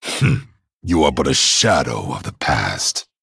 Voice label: Male Dragon greetings; MIRROR